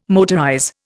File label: "motorize", Indian